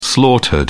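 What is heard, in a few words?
"slaughtered", British English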